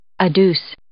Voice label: "adduce", English